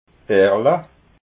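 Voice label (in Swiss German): "erla", Alsatian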